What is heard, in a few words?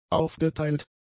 "aufgeteilt", German; adjectives